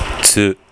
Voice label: "tsu", kana

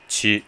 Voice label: "chi", kana

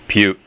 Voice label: "pyu", kana

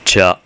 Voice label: "tya", kana

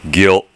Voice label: "gyo", kana

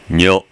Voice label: "nyo", kana

